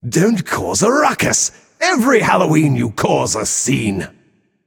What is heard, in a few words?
taunt directed; bacchus; Smite; baronsamedi